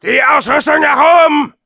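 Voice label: Demoman move up, German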